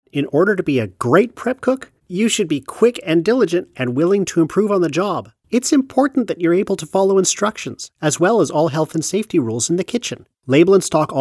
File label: Narration